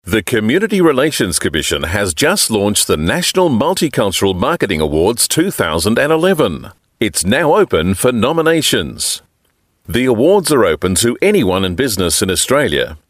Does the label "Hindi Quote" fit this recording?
No